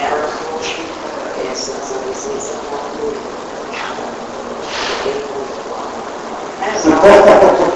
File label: Upstairs Hallway Unexplained Whisper (Help) Amplified